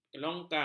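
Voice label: "kelongkang", Malay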